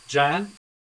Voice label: Voice Acting, es, M

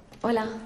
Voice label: Voice Acting es F

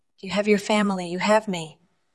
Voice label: VoiceActing es F

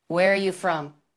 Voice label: Voice Acting es

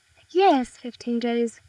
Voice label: Voice Acting, es, F0

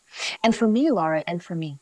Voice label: Voice Acting, es, F0

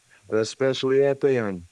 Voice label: Voice Acting, es, M

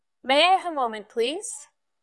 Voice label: VoiceActing es

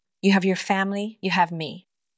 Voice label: Voice Acting es F

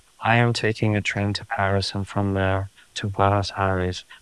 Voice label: Voice Acting es M

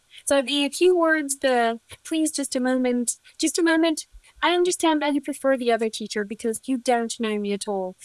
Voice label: Voice Acting es

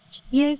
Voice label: Voice Acting, es

